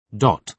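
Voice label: "dot", British English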